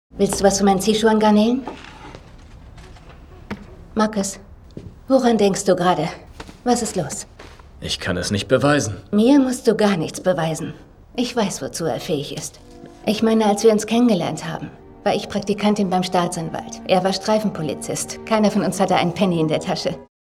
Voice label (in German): Synchron; Elementary